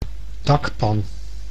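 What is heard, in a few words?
"dakpan", Dutch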